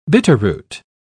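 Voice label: "bitterroot", British English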